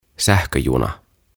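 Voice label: "Sähköjuna", "Train Set", Finnish, English; OTAVA KONSERNI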